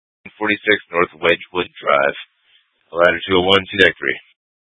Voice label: FD Dpts VHF 156.240 Patch; TOPAZ Rgnl Wireless Co-op (TRWC)